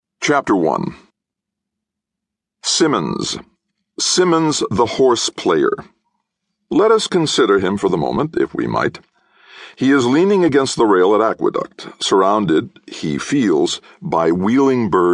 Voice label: Audiobooks; Malzberg